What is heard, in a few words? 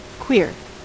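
"queer", US English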